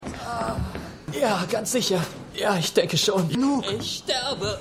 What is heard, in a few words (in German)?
Verwundeter Soldat; Stimmproben